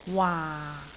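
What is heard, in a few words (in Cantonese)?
"waa4", Cantonese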